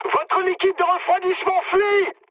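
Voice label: damaged water, mood high, French; War Thunder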